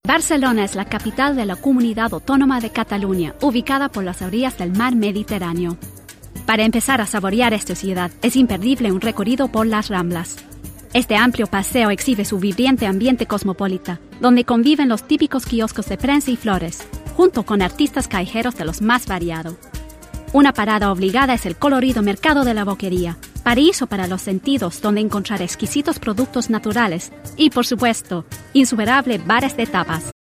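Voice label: Narration Spanish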